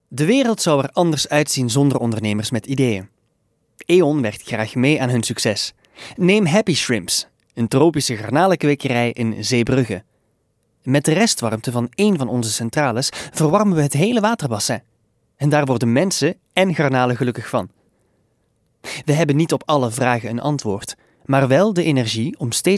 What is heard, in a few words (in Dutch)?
Commercial